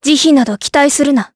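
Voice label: Vox Skill, voices, Japanese; Tanya; kingsraid audio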